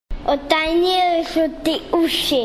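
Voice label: "otanjiti", Croatian dialect; istanjiti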